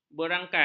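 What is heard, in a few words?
"berangkai", Malay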